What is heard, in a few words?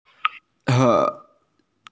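"gei3", Mandarin Chinese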